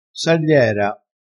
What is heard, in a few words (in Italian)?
"sagliera", crespinese, vocabolario dialettale